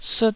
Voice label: "sut1", taiwanese Syllables

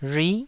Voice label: "rhui9", taiwanese

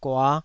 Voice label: "gua5", taiwanese

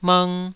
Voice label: "mng2", taiwanese syllables

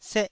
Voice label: "seh4", taiwanese

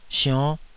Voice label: "sionn5", Taiwanese syllable

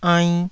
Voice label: "ainn1", taiwanese

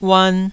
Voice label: "uan2", taiwanese Syllables

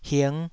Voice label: "hing5", Taiwanese syllable

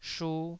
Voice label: "shu2", taiwanese

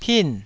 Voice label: "pin4", taiwanese

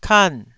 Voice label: "kan4", taiwanese syllable

